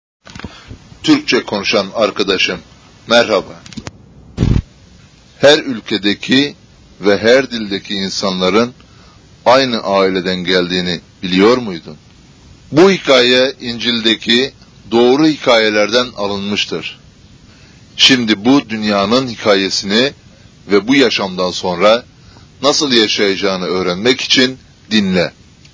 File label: turkish, story